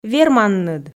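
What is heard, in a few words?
"верманныд", Komi, female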